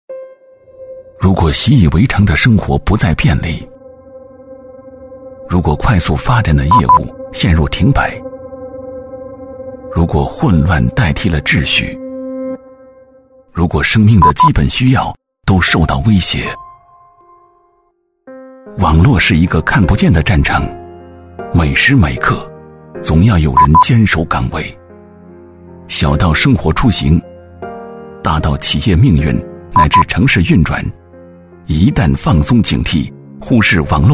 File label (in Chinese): 男 宣传片 紧张 激情; 广州网络安全